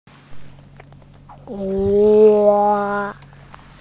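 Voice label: "hua1", Mandarin Chinese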